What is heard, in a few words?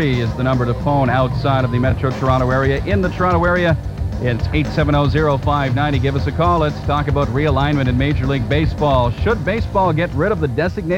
radio